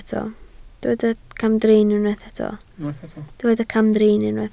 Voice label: "camdrin", Welsh